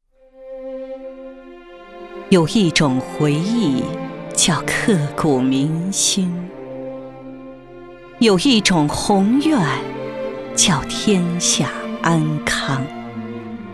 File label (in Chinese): 女-电台-感情版头